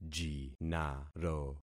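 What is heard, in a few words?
"jinaro", concatenated syllables; Affixing experiment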